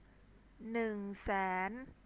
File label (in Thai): "neung saen", Thai